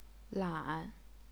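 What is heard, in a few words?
"lan", Chinese, third tone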